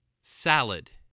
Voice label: "salad", English spelling